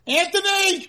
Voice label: italian mom